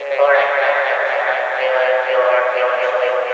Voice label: robot voice